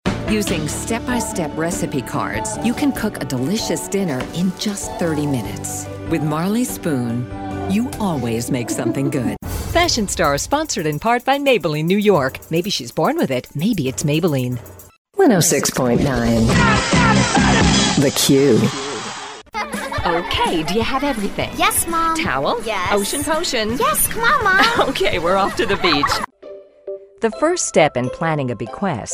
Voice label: english american narration